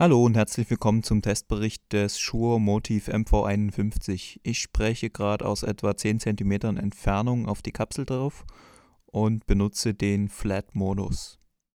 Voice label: shure motiv mv51 vocal 10 cm flat

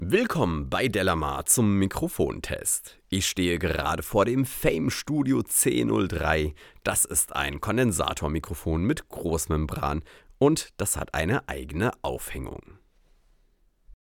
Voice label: speech m

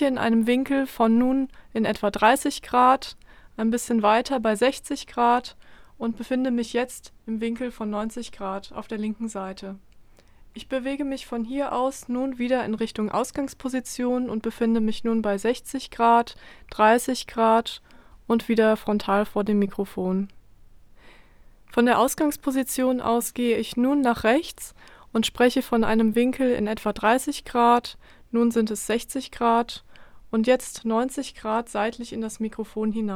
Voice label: off-axis; warm audio wa-47 test

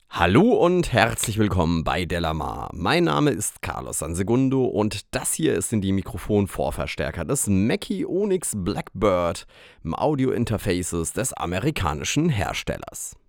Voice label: neumann u87; mackie onyx blackbird

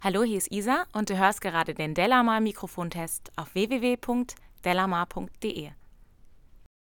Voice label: speech f; smproaudio mc03

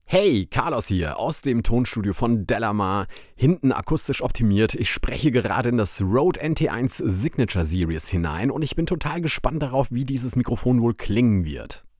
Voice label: rode nt1 signature test

